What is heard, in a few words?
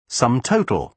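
"sum total", British English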